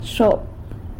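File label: "xop" six, San Lucas Quiaviní Zapotec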